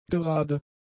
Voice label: "gerade", German; adjectives